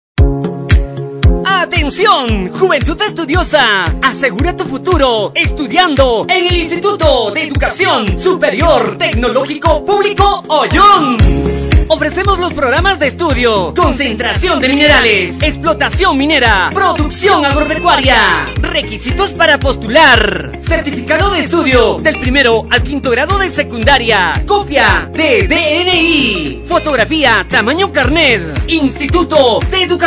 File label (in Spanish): SPOT ADMISION; Proceso de Admisión 2026 – PORTAL IESTP OYON; Instituto de Educación Superior Tecnológico Público «Oyon»